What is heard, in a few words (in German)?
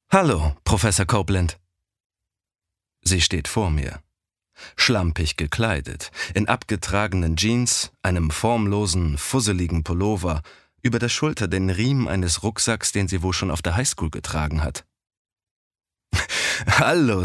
Hoerbuch Thriller; Das Mädchen aus Brooklyn